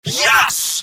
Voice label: scout cheers; video games; source engine; tf; mvm

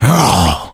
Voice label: frustration; voice; source engine; left 4 dead 2

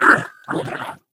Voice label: angry; Portal 2; Source Engine; video games; ballbot

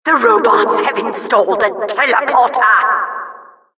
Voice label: announcer tele activated; mvm; eng; source engine; tf

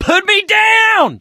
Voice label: charger running with player, survivor voice; source engine; left 4 dead 2

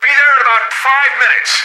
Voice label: radio in transit; Left 4 Dead 2; Source Engine; video games; npc; boatman

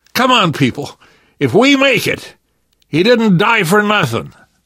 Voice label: grief manager, survivor voice; source engine; left 4 dead 2; namvet

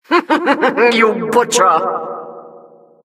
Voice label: announcer, vo, killstreak

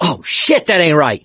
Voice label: reaction negative, survivor voice mechanic

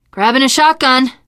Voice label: take shotgun, teengirl; source engine; left 4 dead 2; survivor

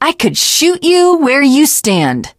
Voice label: initial meeting, teen girl

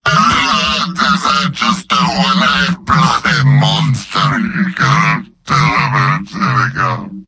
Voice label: demoman gibberish; mvm

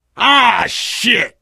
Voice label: swear, player survivor voice biker

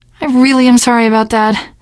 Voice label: sorry, teen girl voice; survivor voice

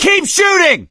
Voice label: battlecry tank; voice; source engine; left 4 dead 2; survivor; gambler

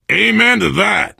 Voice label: safe spot ahead reaction, survivor voice biker; source engine; left 4 dead 2

